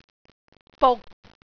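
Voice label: "buk6", Cantonese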